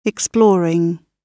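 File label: "exploring", British English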